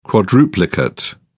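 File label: "quadruplicate", English, British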